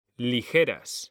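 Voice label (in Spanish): "LIGERAS", male; mekanta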